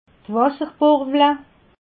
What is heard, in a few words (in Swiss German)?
"wasserbarble", alsace